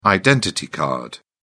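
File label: "identity card", British English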